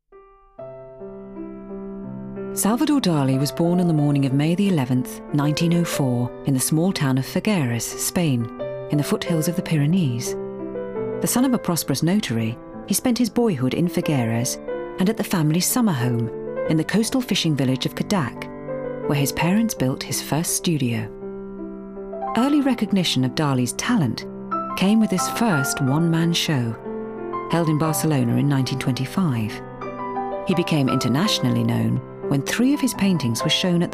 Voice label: narr